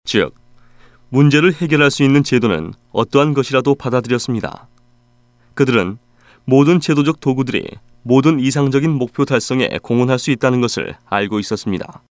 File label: Korean Professional male, Foreign Voice Talent